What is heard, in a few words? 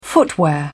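"footwear", en, uk British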